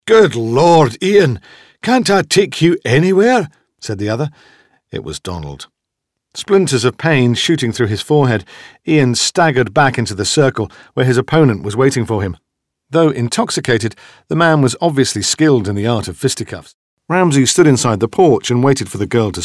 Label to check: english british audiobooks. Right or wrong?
Right